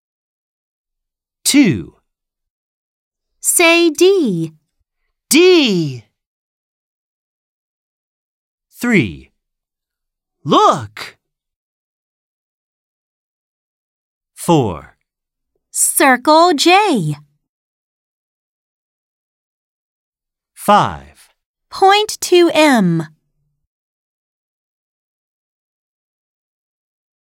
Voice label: Classroom English